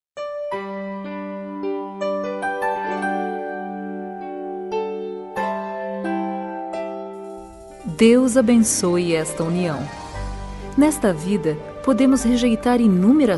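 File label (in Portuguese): Evangélica Aniversário de Casamento, female, telemensagens; Amiga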